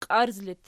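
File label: "qiarz" locative, Archi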